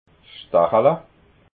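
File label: "stachala", Alsatian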